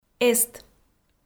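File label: "эст", Komi, female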